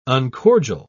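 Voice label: "uncordial", British English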